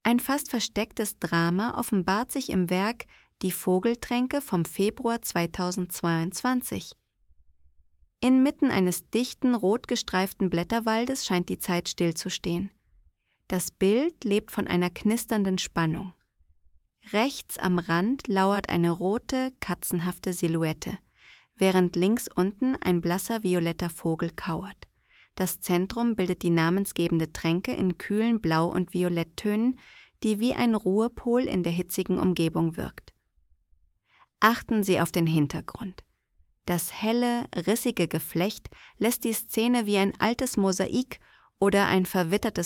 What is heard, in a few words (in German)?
voice audio guide; vogeltraenke